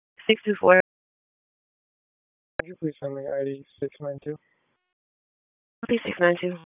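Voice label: Police Dispatch; Raleigh Police Southwest District Disp; wake; p25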